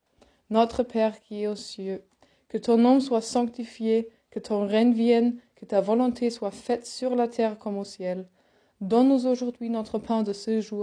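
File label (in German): Vaterunser französisch; Vater unser auf französisch; Familienimpuls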